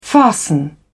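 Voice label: "fasten", British English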